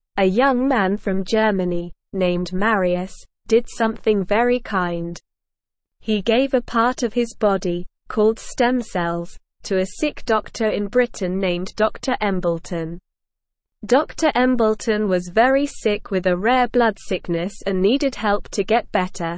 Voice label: English SLOW Reading; A Kind Man Helps a Sick Doctor

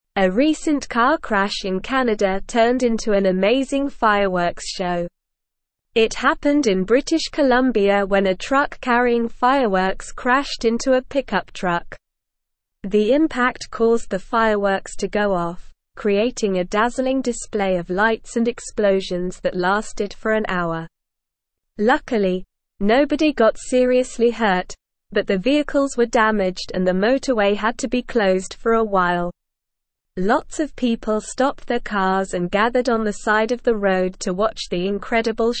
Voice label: English Upper Intermediate SLOW Reading; English Newsroom; Car Crash Ignites Fireworks Creates Spectacular Sho

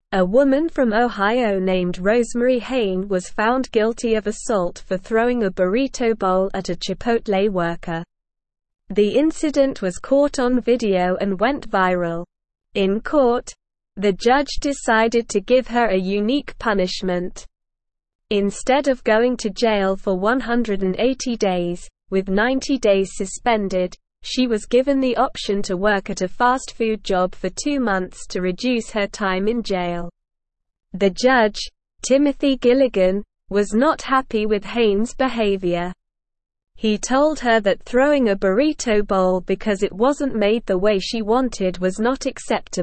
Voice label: Upper Intermediate SLOW Reading, English; English Newsroom; Ohio Woman Sentenced to Fast Food Job for Assault

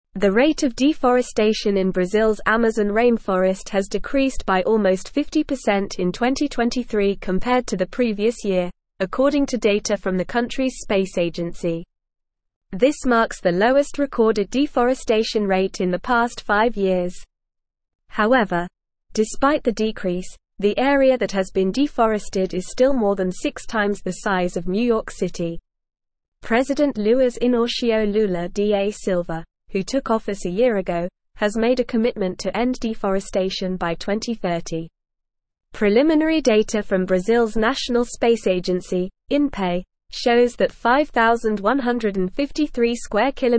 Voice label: English Newsroom Advanced NORMAL Reading; Brazils Amazon Deforestation Rate Drops by 50

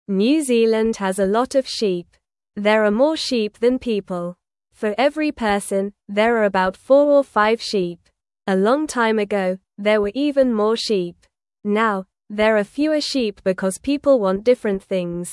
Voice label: English news reading, beginner, normal; New Zealands Sheep Numbers Are Getting Smaller; English Newsroom

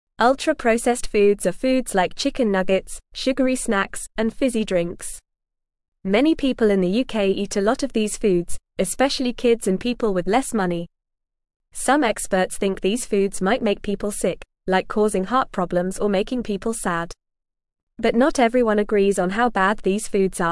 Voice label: English Beginner FAST Reading; Are Ultra Processed Foods Bad for Our Health

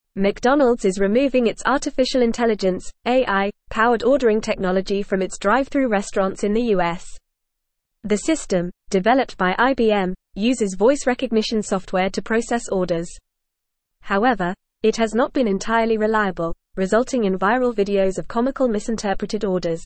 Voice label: English FAST Reading; McDonalds Removes AI Ordering Tech After Comical Mishaps

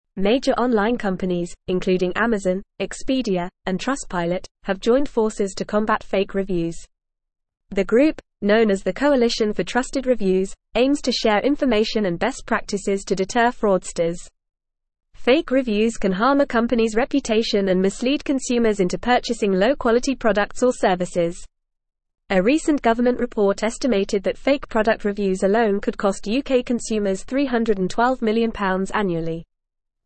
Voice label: English Newsroom Advanced FAST Reading; Coalition for Trusted Reviews Combating Fake Online Reviews

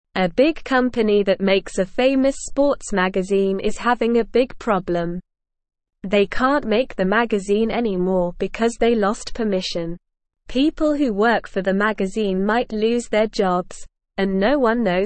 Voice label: Beginner SLOW Reading, English; English Newsroom

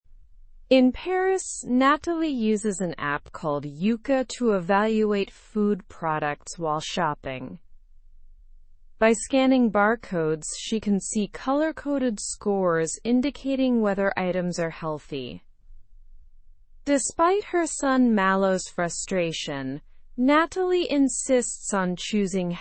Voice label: english through news upper intermediate; digital applications encourage healthier eating habits

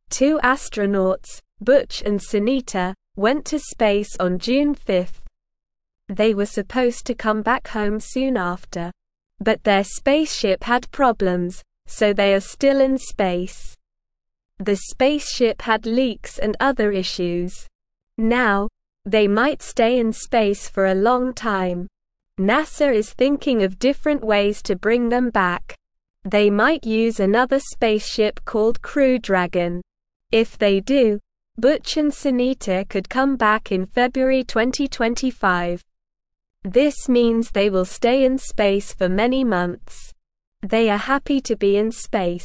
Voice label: English Newsroom Beginner SLOW Reading; Astronauts Butch and Sunita Stay in Space Longer

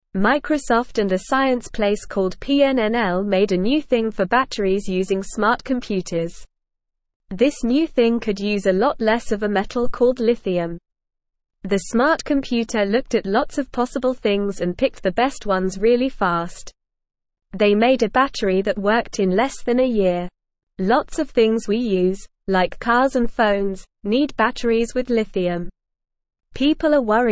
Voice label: English Lower Intermediate NORMAL Reading; New Battery Discovery Less Lithium More Power; English Newsroom

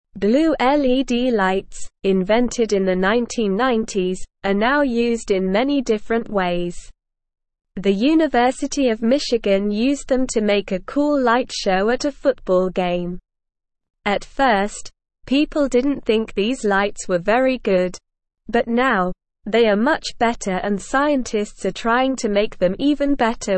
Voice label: English Lower Intermediate SLOW Reading; Blue LED Lights Changing How We Light Up; English Newsroom

